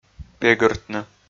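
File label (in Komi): "пегыртны", Komi, male; audio dict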